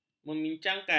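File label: "memincangkan", Malay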